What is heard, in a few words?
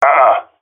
negative, jungle male epic; player vo; Counter Strike Model Pack; CS:GO